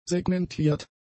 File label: "segmentiert", German; adjectives